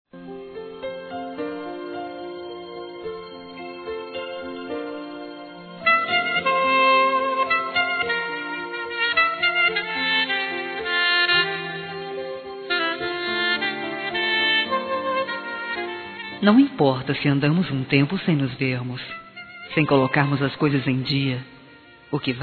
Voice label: Aniversário Prima Feminino; Aniversários; Aniv Familiar

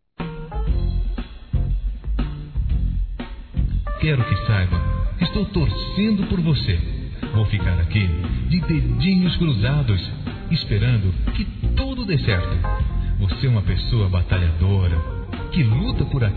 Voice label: OTIMISMO P HOMEM M, Telemensagens; masc

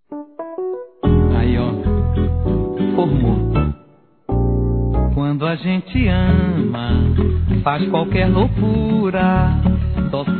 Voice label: GERAL M

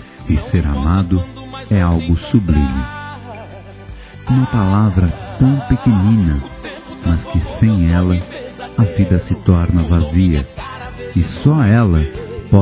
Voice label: AMOR M; Telemensagens

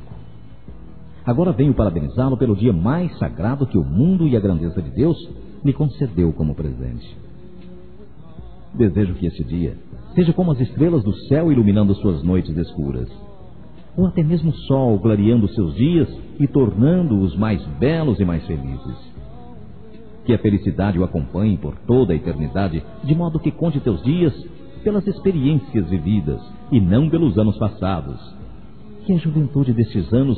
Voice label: aniversário atrazado, Telemensagens, masc; Aniversários; Aniversários diversos

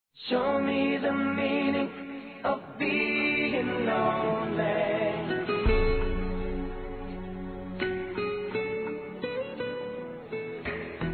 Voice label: SINTO SUA FALTA (INFANTIL) F; Telemensagens 2017

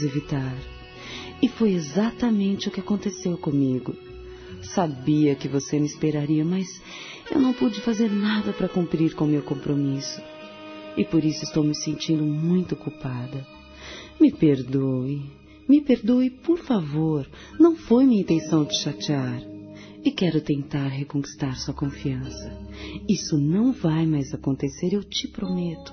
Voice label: DESCULPAS POR NÃO TER ÍDO F, Telemensagens, fem; Telemensagens 2017

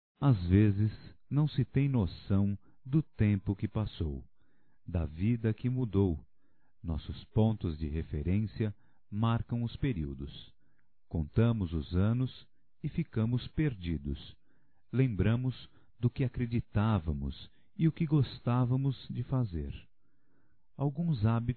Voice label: Mensagem para Amigo Masculino

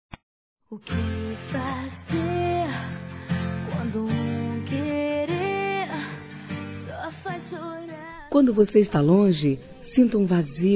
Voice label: ROMANTICO DISTANTE F; Telemensagens; Românticas

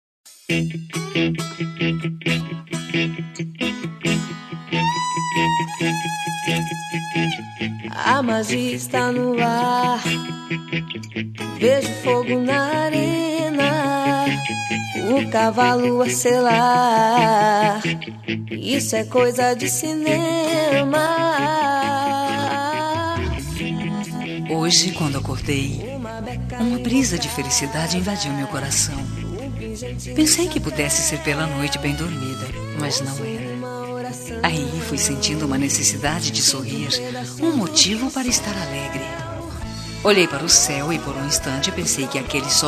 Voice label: CONTE COM MINHA AMIZADE (F)

